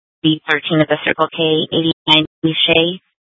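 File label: Police Department North Dispatch, scanner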